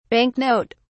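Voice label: "bank note", British English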